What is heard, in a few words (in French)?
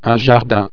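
"jardinier", French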